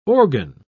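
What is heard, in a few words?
"organ", spoken word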